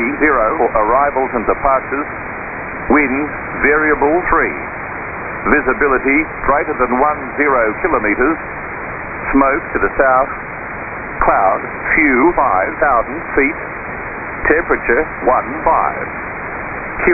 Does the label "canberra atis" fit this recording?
Yes